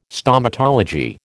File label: "Stomatology", American